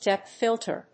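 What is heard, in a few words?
"Depth filter", English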